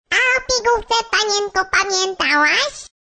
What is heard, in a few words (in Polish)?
Głos Kobiecy - O pigułce panienko pamiętałaś